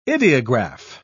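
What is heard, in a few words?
"idiograph", English, British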